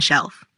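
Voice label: "Shelf", pronunciation; shopping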